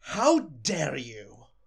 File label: start, voices angry pursuit; DanisRace